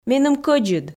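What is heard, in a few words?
Komi, female; меным кӧдзыд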